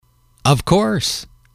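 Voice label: "of course", English, male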